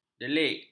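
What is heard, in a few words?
"delik", Malay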